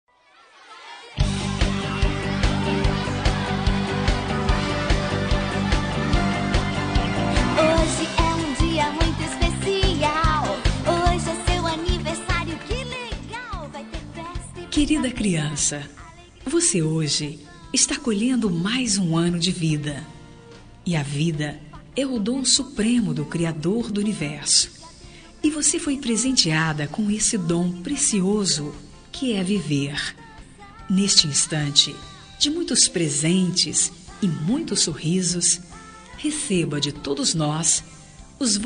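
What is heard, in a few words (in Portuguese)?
aniversário infantil feminina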